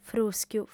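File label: "frùschiu", female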